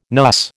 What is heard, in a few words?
"NUS", American